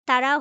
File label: Female